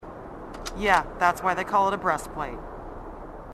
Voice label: female marine, sassy

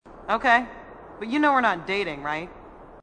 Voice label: female marine, sassy

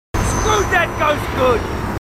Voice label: marines, aussie; h2dialogue

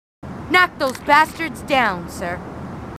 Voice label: marines female, marine tough

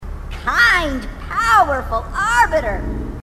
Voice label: grunt; h2dialogue; grunt crazy

